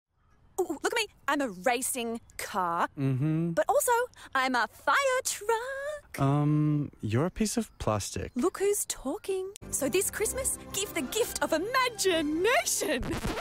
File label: Character